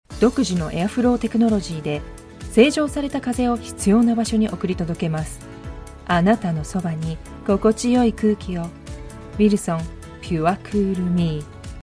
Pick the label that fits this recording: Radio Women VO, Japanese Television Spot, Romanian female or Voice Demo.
Japanese Television Spot